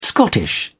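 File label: "scottish", British English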